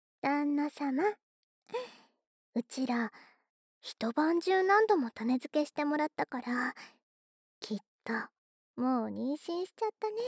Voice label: ピロートーク, ASMR

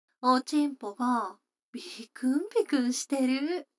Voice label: 女の子 台詞

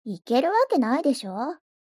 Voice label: mob 女子 台詞

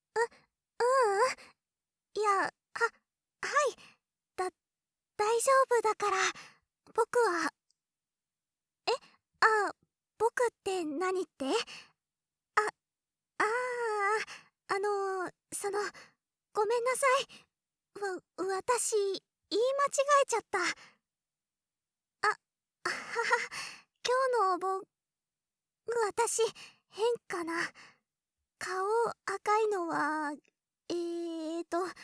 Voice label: SE無し, ASMR; ドキドキ……なりすまし学園生活

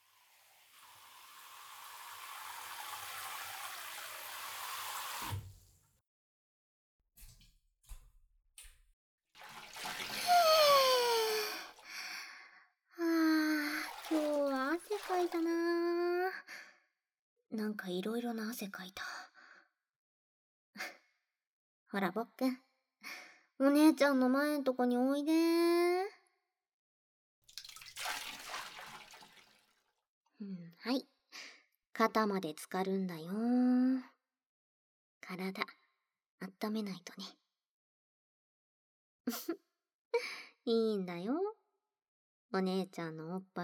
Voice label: お姉ちゃんのあまあまシャンプー; BGMとえっちな効果音なし版

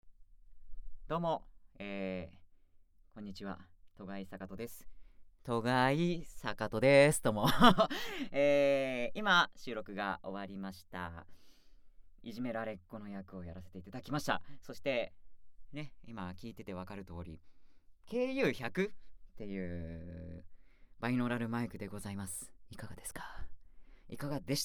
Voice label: フリートーク

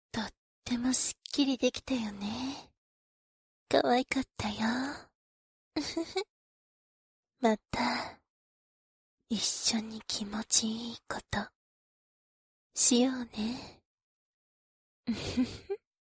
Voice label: 添い寝; 姉のみ

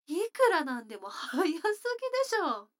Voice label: 女の子台詞, ASMR

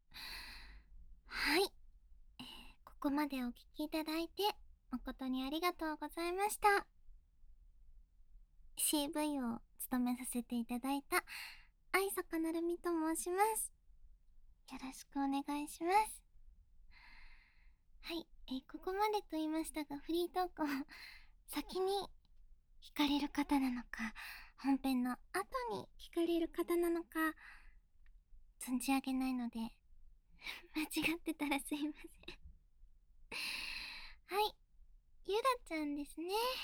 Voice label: フリートーク

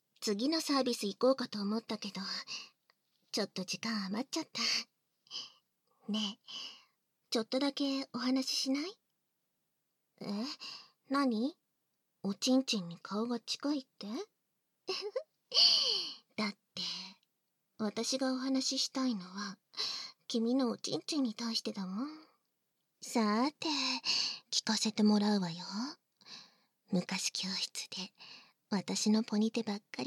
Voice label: ポニテで髪コキ（バイノーラルマイク 効果音あり）; バイノーラル編集 効果音あり

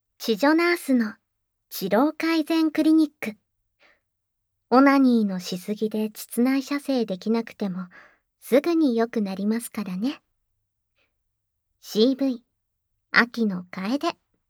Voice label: タイトルコール, ASMR

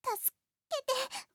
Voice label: 助けて…, クール; ハイグレボイス集

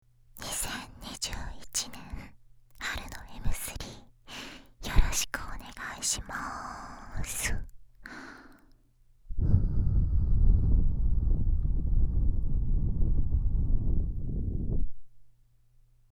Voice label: 告知音源

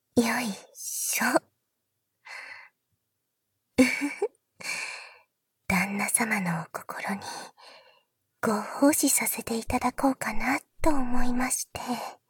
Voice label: 背中ぽんぽん+なでなで（バイノーラルマイク 効果音なし）; 前から抱きしめて; 前から抱きしめて背中ぽんぽん+なでなで

